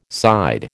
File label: "side", American